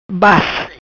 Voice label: "Bas", Macanese; Autocarro, camioneta

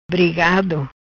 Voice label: "Brigado", Macanese

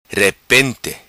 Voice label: "Repente", Macanese

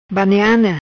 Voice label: "Baniane", Macanese

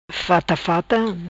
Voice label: "Fata Fata", Macanese

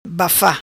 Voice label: "Bafa", Macanese; Pedra de dominó; Domino piece

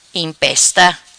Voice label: "Impesta", Macanese

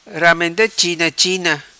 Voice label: "Ramenda China China", Macanese

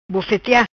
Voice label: "Bufutia", Macanese

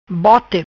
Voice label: "Bote", Macanese

